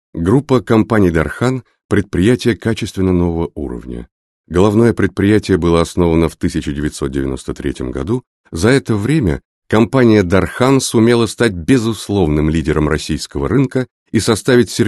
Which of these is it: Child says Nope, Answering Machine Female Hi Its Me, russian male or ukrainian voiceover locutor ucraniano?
russian male